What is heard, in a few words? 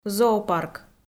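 "зоопарк", Komi, female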